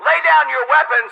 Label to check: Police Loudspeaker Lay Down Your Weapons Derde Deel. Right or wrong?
Right